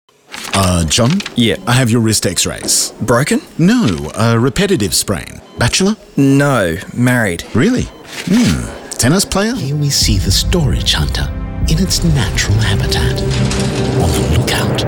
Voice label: characters accents